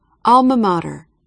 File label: "alma mater", American pronunciation